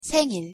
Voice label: pronunciation ko 생일

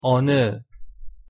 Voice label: "어느", Korean; pronunciation

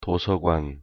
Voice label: "도서관", Korean

